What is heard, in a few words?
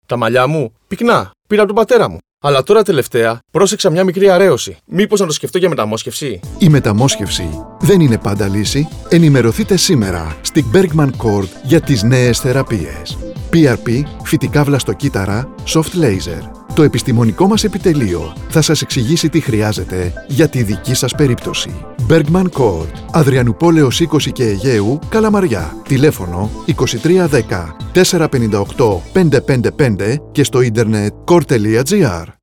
radio spots; trixoptwsi metamosxefsi malliwn therapies